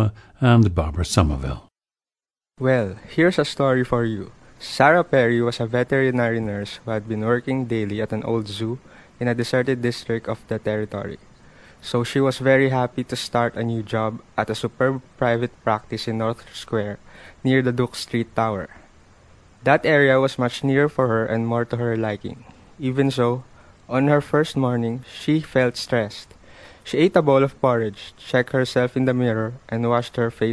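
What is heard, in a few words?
philippines, dialects of English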